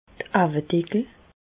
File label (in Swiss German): "auwedeckel", Bas Rhin; medecine; paupières